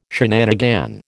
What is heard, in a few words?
"shenanigan", American